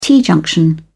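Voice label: "t-junction", British English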